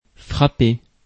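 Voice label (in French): "a frapper", French; afrikalan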